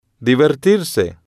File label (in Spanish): "divertirse", spanish